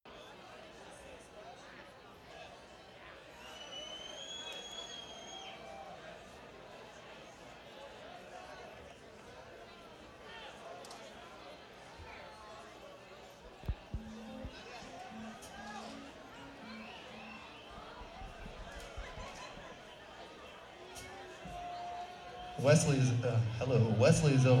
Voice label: announcement

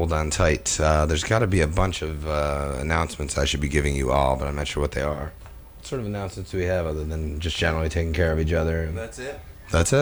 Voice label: radio banter; Big Cypress

